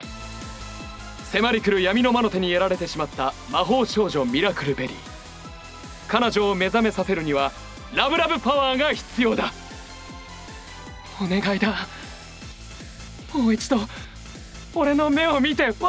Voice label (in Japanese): 次回予告ボイス; カナト